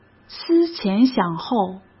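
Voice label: "思前想后", Mandarin Chinese; hsk; chinese-flashcards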